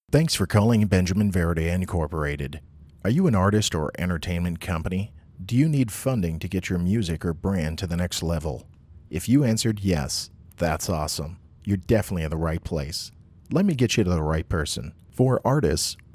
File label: intro IVR